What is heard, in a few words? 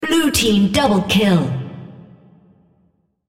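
On Champion Double Kill blue female, en US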